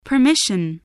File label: "permission", voice, English